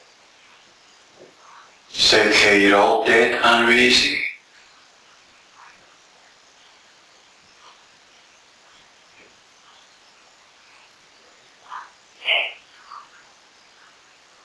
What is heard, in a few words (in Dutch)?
nee + 2 klopjes voor nee, EVP